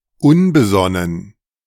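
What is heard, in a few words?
"unbesonnen", German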